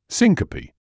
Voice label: "syncope", English